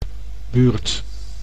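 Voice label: "buurt", Dutch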